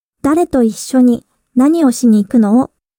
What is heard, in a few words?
anime, japanese; Japanese question words; anime-style conversations; practice japanese question words